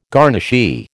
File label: "garnishee", American